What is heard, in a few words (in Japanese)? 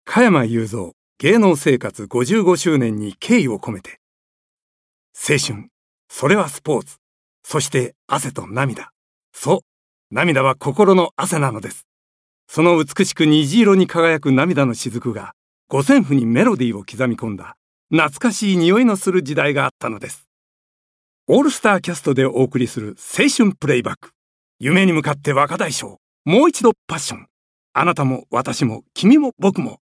番宣